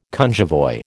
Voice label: "cunjevoi", American